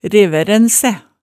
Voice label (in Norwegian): "reværænsæ", Hemsedal